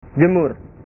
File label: "jemur", bahasa